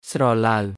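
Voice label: Khmer "ស្រឡៅ"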